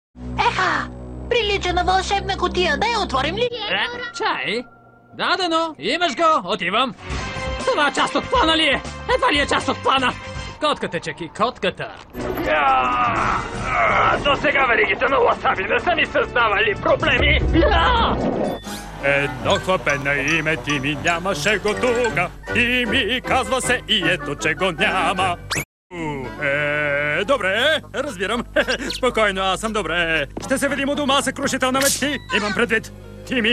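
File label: Bulgarian, General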